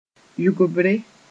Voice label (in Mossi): "yʋgbre", moore